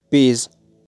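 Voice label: "Peas", pronunciation; Vegetales; vegetables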